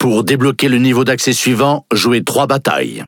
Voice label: Speech Access Level Unlock, FR; World Of Warships

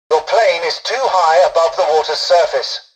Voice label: tutorial dialogue, english; War Thunder

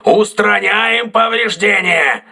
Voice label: voice message repairing, crew ships russian

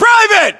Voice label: US rank private, battlechatter, english

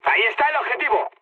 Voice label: near waypoint, mood high, speech; War Thunder

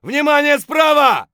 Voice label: flank right, voice; Escape from Tarkov

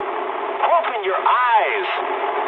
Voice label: eng player friendly fire mood low, speech eng

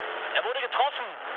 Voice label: aircraft destroyed, mood low, speech ge; War Thunder

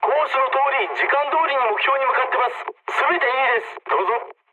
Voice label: jp chat, speech jp

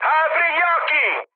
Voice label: player friendly fire mood high, speech it

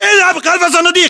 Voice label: order cover boxes, battle chatter, english

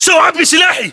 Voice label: inform reloading generic, english battlechatter

